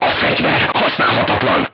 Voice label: voice message weapon destroyed, crew tanks hungarian